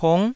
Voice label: "pung9", Taiwanese

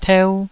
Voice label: "teu2", Taiwanese

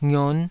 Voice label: "ngion5", taiwanese syllables

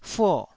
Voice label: "fuo4", Taiwanese

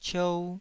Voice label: "ciou2", Taiwanese

